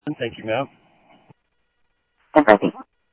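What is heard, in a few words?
Sheriff East County, scanner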